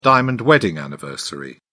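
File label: "diamond wedding anniversary", British English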